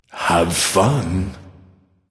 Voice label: vox emote f; smite; chronos